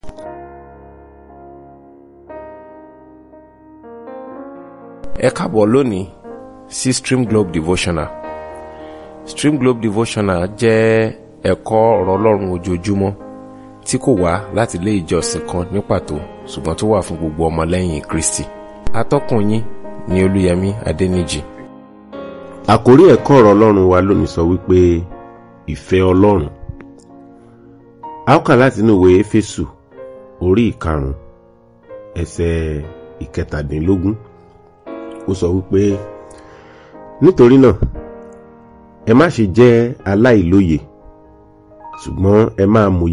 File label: Devotional Audio Yoruba